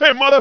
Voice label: hey m; rashdemo

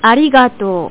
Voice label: "arigatou", Japanese; japaneselanguageguide

